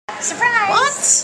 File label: surprised